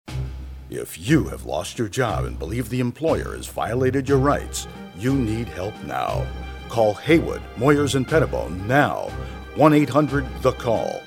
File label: Commercial Legal; voiceovers